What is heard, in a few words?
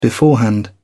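"beforehand", en, uk British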